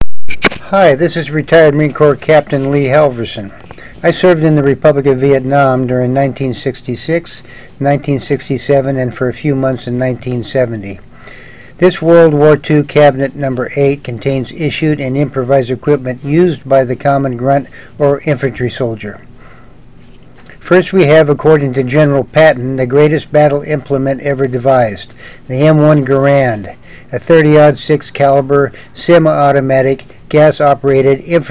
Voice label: narrative; Cabinet 8; Veterans Memorial Building Museum and Historical Collections